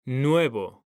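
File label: "nuevo", Spanish, male; mekanta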